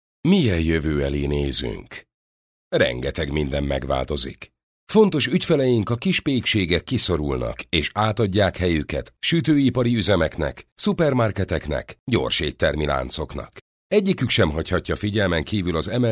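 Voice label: HU M corporate, Hungarian, male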